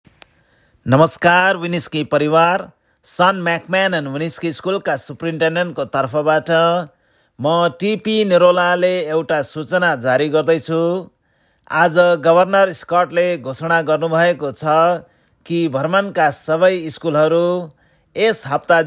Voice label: nepali